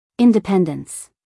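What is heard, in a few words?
"independence", American English, female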